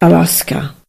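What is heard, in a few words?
alaska, Spanish; gcompris-data; geography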